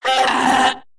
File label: Male Mech Death